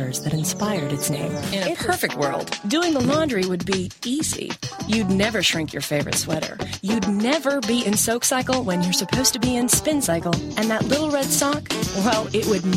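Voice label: warm, radio commercial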